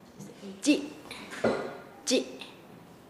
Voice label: Nasa Yuwe; paez